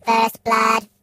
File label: "First blood", Robot